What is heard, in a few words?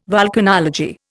"volcanology", Indian